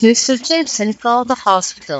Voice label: soundstream noisy speech lyra 3kbps